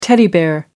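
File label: "teddy bear", British English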